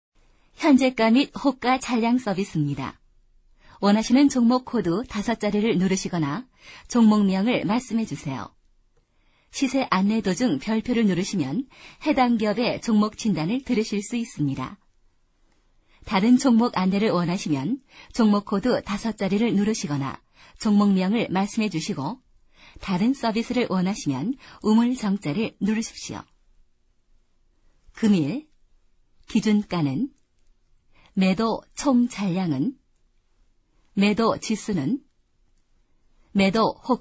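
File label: Korean, female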